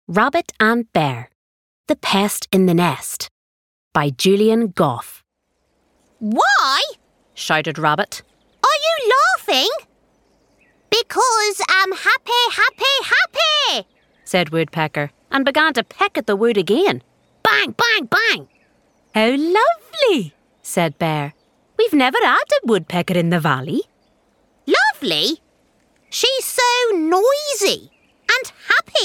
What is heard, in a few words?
Audiobook Narration